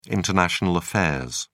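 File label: "international affairs", British English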